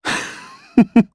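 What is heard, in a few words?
Vox Happy, Japanese; Shakmeh; kingsraid; voices heroes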